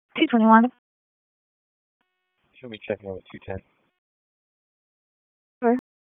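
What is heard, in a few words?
Police Northeast District Disp, radio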